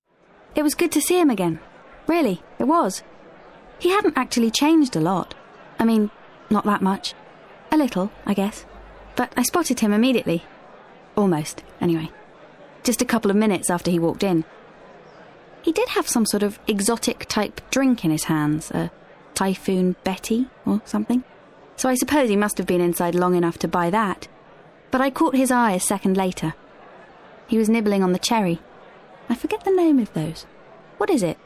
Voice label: Narrative